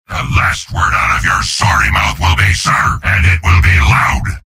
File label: soldier taunts; tf2; garrysmod; mvm